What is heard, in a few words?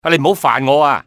"leave me", male; XBOX; civ